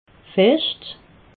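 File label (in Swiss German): "fecht", Haut-Rhin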